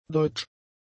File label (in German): "deutsch", german; adjectives